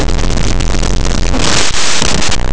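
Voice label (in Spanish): "hacia", Spanish, fricatives; laboratori fonetica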